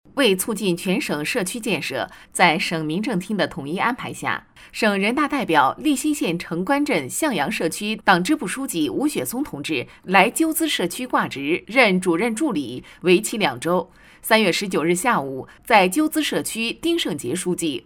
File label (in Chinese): 女 专题 新闻 新闻